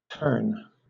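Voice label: "terne", British; pronunciations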